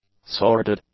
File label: "sordid", english; adjectives